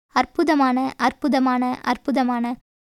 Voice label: Tamil